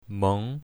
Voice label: "meng2", Mandarin

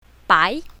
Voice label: "bai2", Mandarin; colors

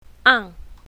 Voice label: "ang4", pinyin, Mandarin

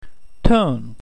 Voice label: tone falling, mandarin; pinyin; tones

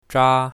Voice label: "zha1", Mandarin

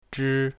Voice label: "zhi1", Mandarin

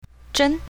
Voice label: "zhen1", pinyin, Mandarin

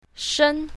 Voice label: "shen1", Mandarin; colors

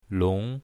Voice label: "long2", Mandarin; drinks

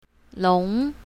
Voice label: "long2", Mandarin; animals

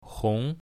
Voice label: "hong2", Mandarin

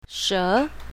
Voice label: "she2", Mandarin; animals

